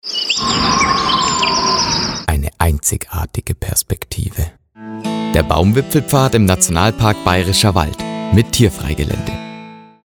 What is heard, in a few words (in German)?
Werbung Reminder; Erlebnis Akademie